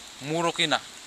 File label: "murukina", futuna aniwa; Housing